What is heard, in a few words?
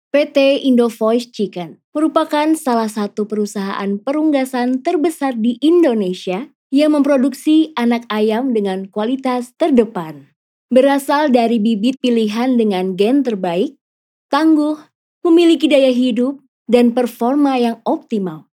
FORMAL INDONESIA